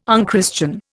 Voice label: "unchristian", Indian

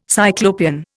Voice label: "Cyclopean", Indian

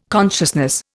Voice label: "consciousness", Indian

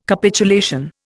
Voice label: "Capitulation", Indian

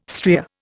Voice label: "stria", Indian

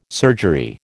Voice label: "surgery", American

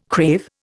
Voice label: "crave", Indian

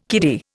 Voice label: "kiddie", Indian

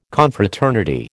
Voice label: "Confraternity", American

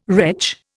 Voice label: "wretch", Indian

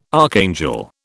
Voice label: "archangel", British

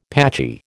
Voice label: "patchy", American

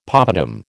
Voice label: "poppadam", American

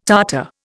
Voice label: "Tartar", Indian

